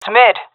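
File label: mid, female; player vo; Counter Strike Model Pack; CS:GO; seal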